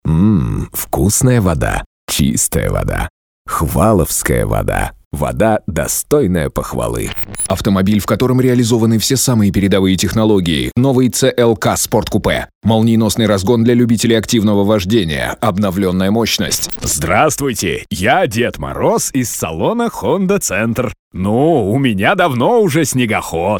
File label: dictor